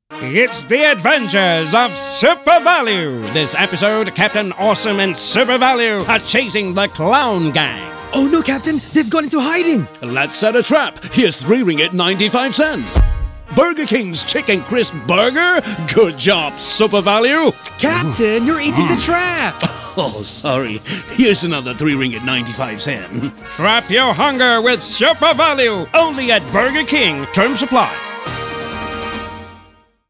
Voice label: ENG; BURGER KING SUPER VALUE CLOWN GANG